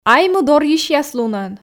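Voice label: "айму дорйысьяс лунӧн", Komi, female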